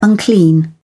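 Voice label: "unclean", British English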